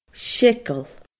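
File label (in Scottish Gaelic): "seagal", Scottish Gaelic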